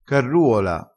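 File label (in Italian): "carruola", dialettale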